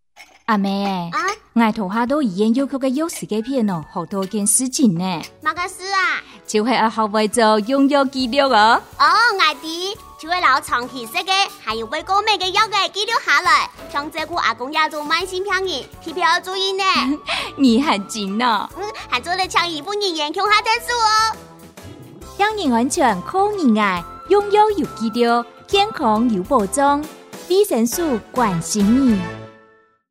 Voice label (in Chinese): 用藥紀錄-客語版